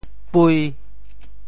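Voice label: "pui5", Teochew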